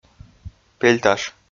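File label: "пельташ", Komi, male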